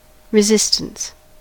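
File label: "resistance", American English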